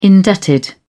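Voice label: "indebted", British English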